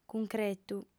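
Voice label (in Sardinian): "cuncretu", female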